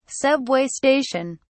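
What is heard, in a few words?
"subway station", British English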